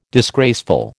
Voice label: "disgraceful", American